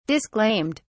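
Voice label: "disclaimed", English, British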